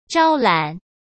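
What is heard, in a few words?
"招揽", Mandarin Chinese; hsk; chinese-flashcards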